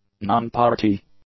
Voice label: "non-party", english; adjectives